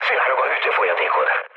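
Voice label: Hungarian damaged water mood low, speech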